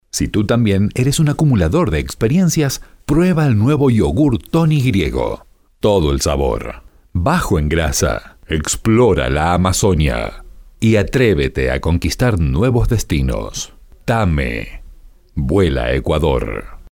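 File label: comercial, Argentine Spanish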